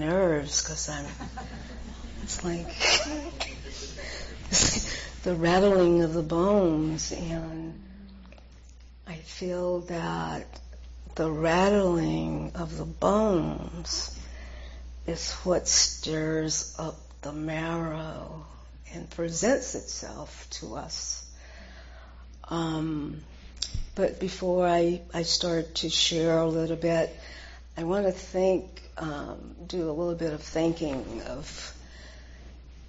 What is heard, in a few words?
Dharma Talk